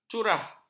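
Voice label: "curah", Malay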